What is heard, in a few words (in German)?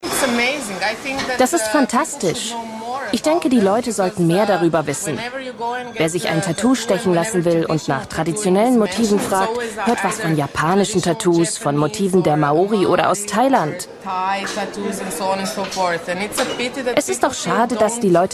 voiceover; arte yourope tattoo